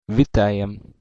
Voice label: welcome, belarusian

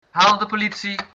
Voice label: police, dutch

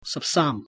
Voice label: cantonese, numbers

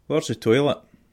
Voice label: toilet, scots

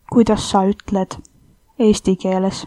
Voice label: how to say, estonian

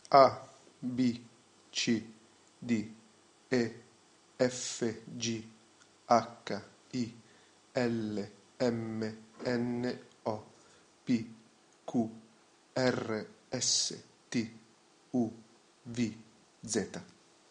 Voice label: alphabet italian

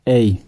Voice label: hello, gwentian

